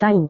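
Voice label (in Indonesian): "tahu", Indonesian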